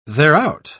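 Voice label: "thereout", British English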